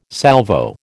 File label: "Salvo", American